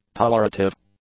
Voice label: "tolerative", English; adjectives